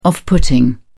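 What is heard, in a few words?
"off putting", British English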